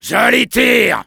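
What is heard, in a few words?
nice shot, French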